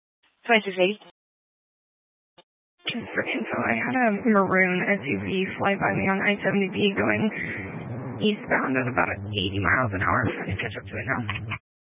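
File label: Sheriff Dispatch, Scanner audio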